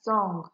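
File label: "song" Nigerian Pidgin, female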